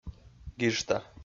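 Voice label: "гижта", Komi, male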